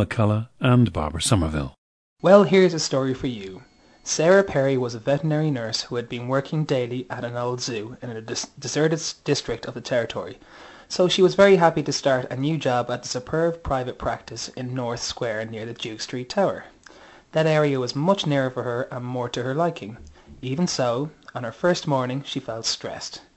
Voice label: ireland, dialects